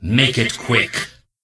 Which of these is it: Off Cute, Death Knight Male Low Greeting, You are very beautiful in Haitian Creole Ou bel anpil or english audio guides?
Death Knight Male Low Greeting